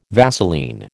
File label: "Vaseline", American